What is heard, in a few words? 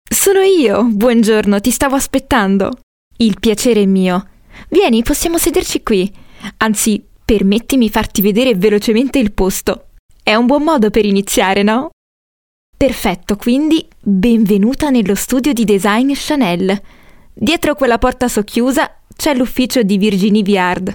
italian voice over female podcast